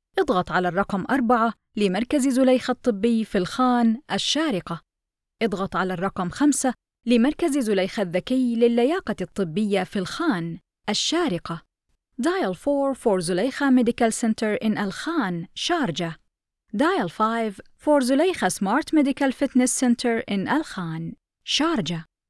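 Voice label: Arabic female IVR; voice over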